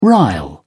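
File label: "rile", British English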